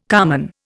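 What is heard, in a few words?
"carman", Indian English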